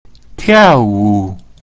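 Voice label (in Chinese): "tiao wu", Mandarin Chinese pinyin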